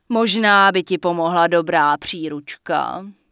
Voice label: Human Female Merchant Start Shopping